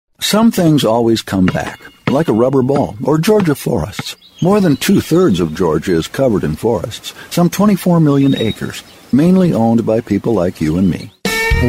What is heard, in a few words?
M Commercial, voiceover mens